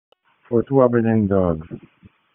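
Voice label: Dispatch 1, P25; WSO DISP 1; wake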